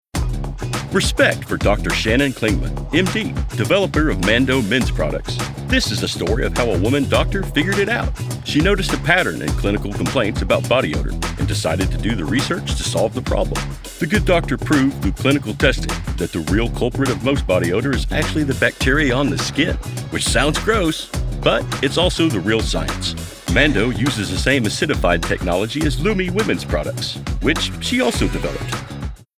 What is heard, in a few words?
Explainer